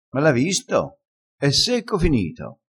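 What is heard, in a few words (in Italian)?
"secco finito", dialettale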